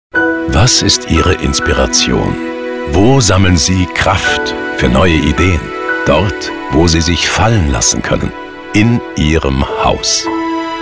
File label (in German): Radiospot Beispiele Immobilien, Vertrauensvoll, hochwertig, emotional; Holz100 Image